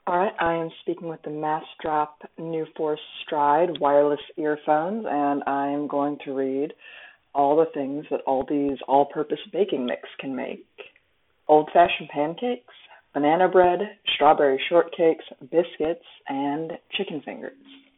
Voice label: NuForce Stride microphone voice; Massdrop